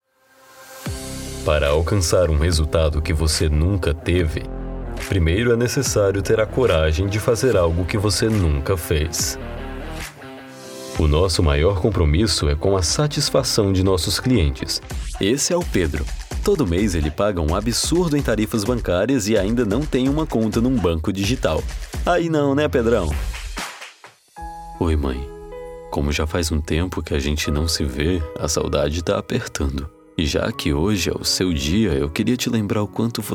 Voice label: Locutor

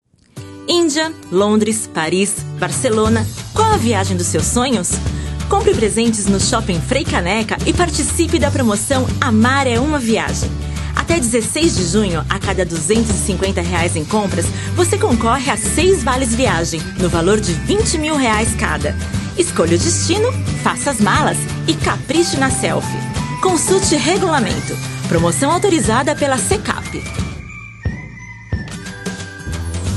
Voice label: Voz Promocao; Shop Frei Caneca

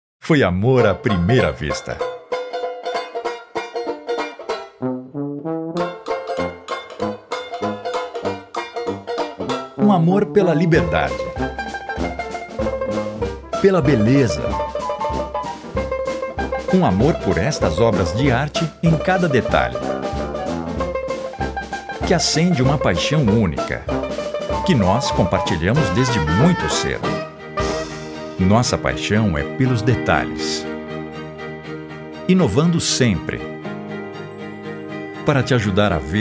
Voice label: INSTITUCIONAL; PHILIPS

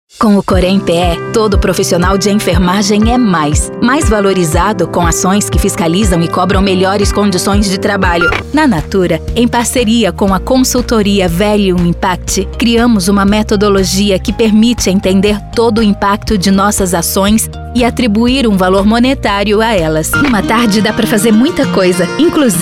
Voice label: Corporativo Caloroso Amigável Casual

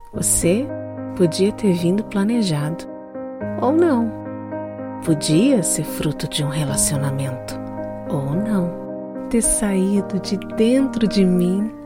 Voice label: Voz acolhedora e emotiva

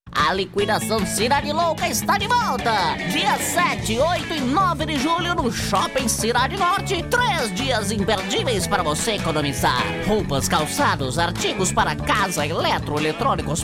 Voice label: Cômico

